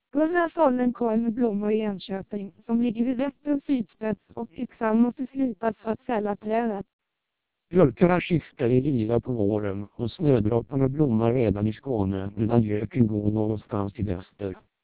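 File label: Swedish speech, TWELP 480 bps vocoder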